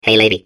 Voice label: babble; portal2